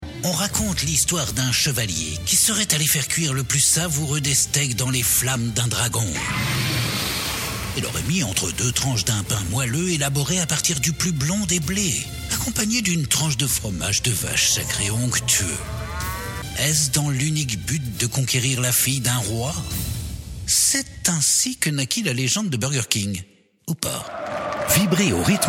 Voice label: Radio

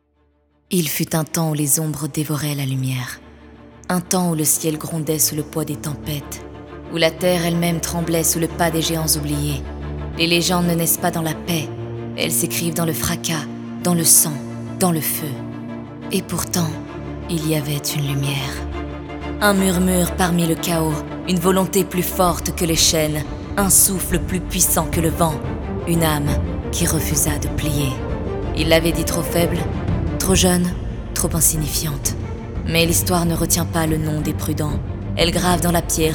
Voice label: NARRATION EPIQUE; LA DERNIERE BATTANTE

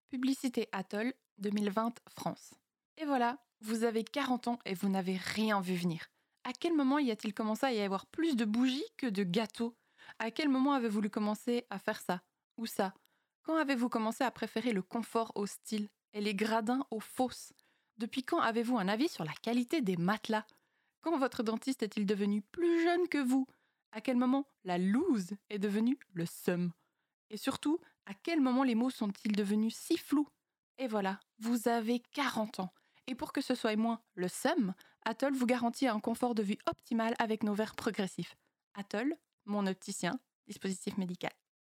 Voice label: Publicite; ATOL

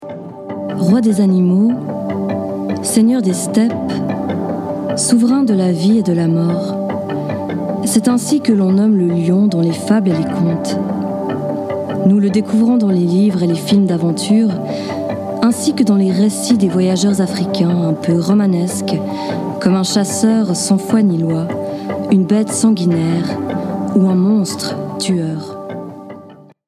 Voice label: Voix Off Reportage Animalier

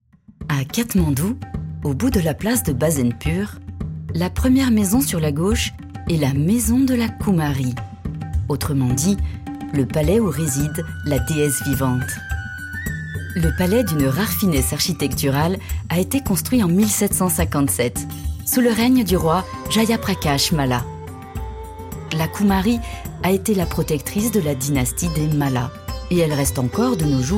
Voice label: Voix Off DOCUMENTAIRE; Katmandou

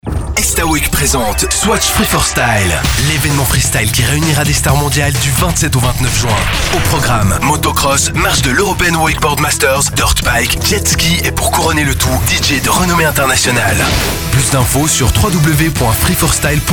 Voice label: Pub Dynamique

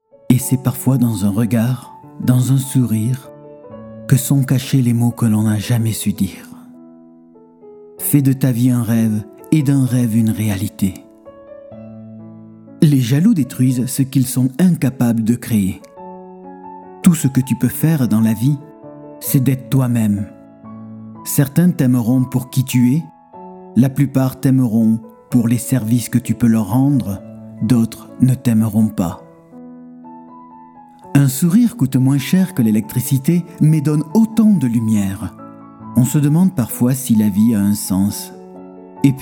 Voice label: Voix Off citation

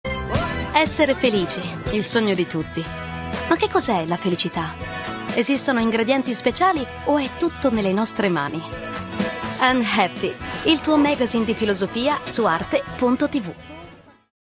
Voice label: bande annonce ita; ARTE

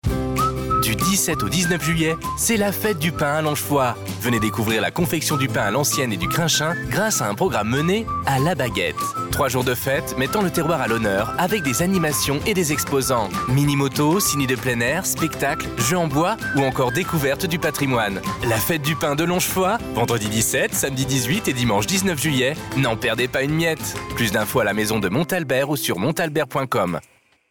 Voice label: pub radio locale

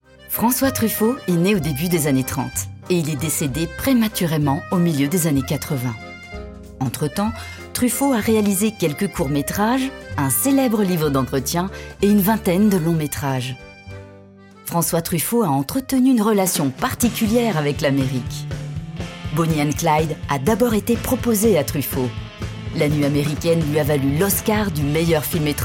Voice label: DOCUMENTAIRE Voix Off; Francois Truffaut

